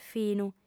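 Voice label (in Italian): "finu", lingua sarda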